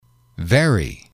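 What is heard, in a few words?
"very", english, male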